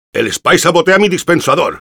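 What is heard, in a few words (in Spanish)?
Engineer auto attacked by spy, Spanish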